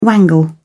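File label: "wangle", English, British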